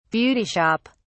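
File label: "beauty shop", British English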